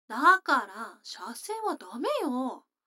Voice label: 女の子台詞, ASMR

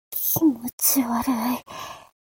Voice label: mob 女子 台詞

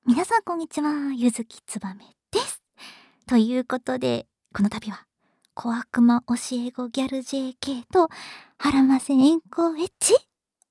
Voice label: フリートーク; 小悪魔おしえごギャルJK

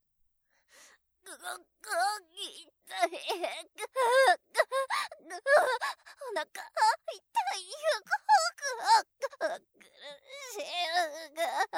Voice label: 陣痛 ボイス 台詞有り

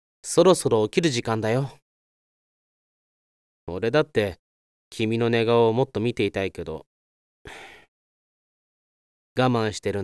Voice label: 目覚ましボイス

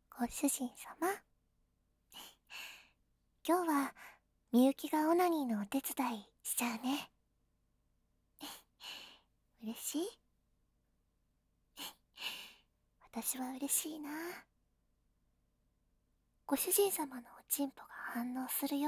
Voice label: ごあいさつ, 左, バイノーラル; DL製作班; ハーレムオナサポ 4人のメイドがご主人様のオナニーをお手伝いするバイノーラル

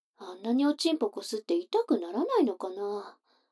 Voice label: 女の子 台詞, ASMR

